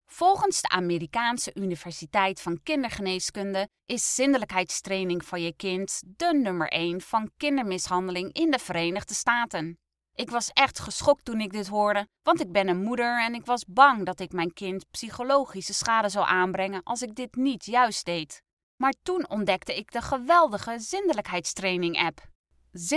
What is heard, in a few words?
Potty training Video Dutch